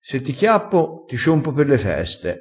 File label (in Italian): "ciompa", crespinese